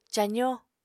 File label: "cazó", Apatani